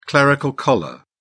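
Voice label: "clerical collar", British English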